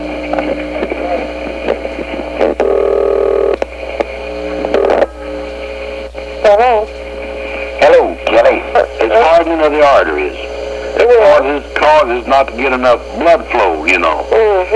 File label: dads phone call; telephone call between Dad and Mamaw